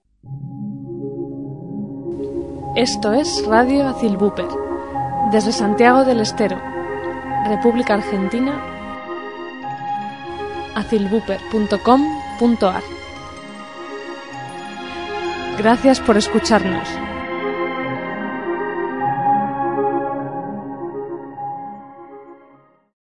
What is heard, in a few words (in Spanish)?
identificacion radio